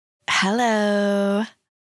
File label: e girl helloo